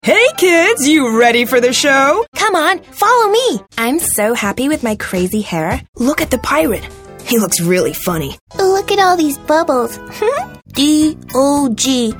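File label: American, toys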